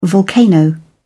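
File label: "volcano", British English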